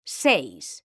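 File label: "seis", Spanish, female; mekanta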